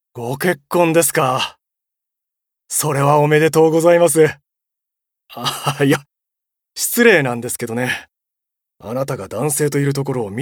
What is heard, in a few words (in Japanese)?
年配